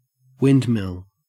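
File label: "windmill", Australian English; pronunciations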